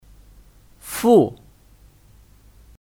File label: "父", Fù; Kata benda